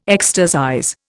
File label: "ecstasize", Indian